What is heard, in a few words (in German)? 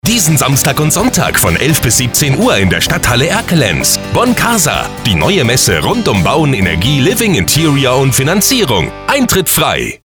Radiospot; Hitradio 1005; bonCASA Erkelenz 2024